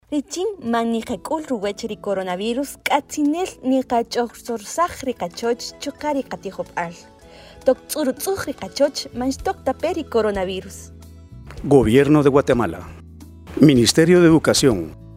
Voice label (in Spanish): Que es coronavirus Kaqchiquel; prevencion